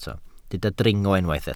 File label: "dringo", Welsh